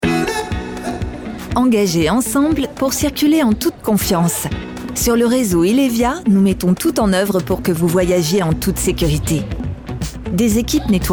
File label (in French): pub reassurance covid, elegante rassurante; ILEVIA